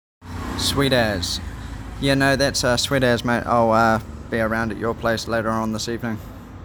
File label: "Sweet As", New Zealand; common New Zealand slang words and phrases